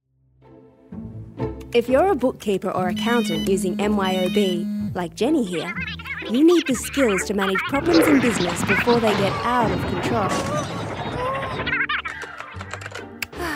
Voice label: CORPORATE

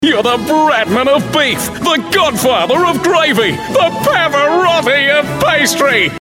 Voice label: announcer

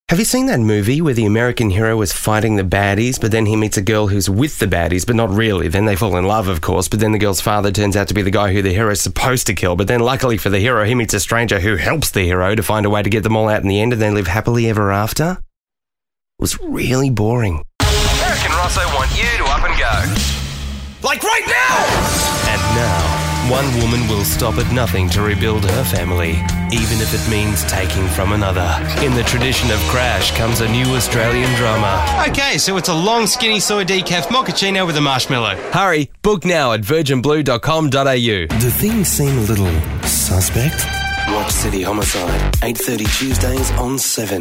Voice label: straight, voices

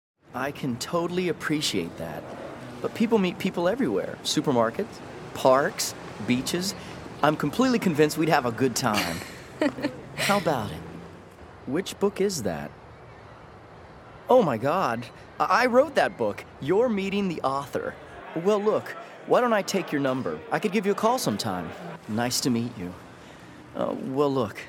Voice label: natural; EM Voices

